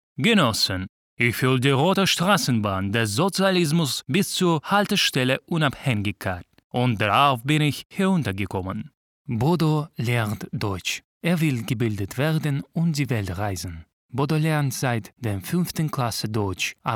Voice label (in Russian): lang nemeckij, golos